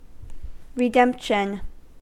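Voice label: "redemption", American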